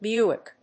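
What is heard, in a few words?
"Buick", English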